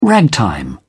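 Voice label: "ragtime", British English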